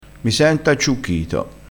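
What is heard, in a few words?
"acciucchito", Italian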